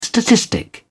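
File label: "statistic", British English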